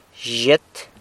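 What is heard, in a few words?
"cat", Zapotec; zhyet; San Lucas Quiaviní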